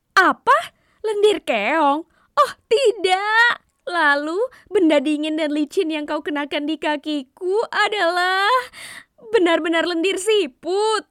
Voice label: Raw Sample Karakter Bahasa